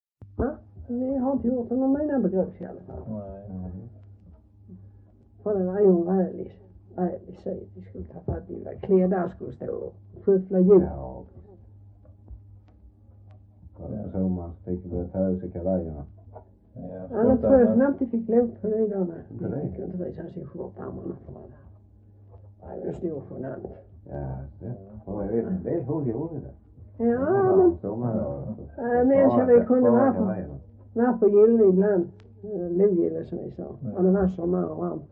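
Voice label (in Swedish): Röddinge Skåne, dialekter svenska sagor; Folkminnen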